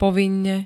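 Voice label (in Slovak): "povinne", Slovak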